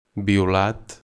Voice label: "purple", Catalan